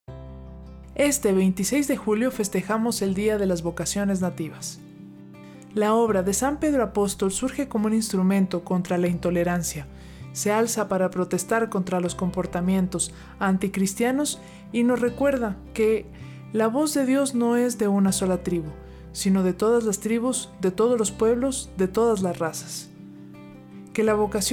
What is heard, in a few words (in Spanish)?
spot F; Vocaciones Nativas